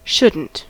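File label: "shouldn't", American English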